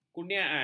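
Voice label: "kurniaan", Malay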